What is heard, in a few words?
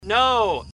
Ai Dude Say Nooo; Dude Theft War; Android